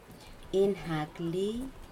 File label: "small", Aneityum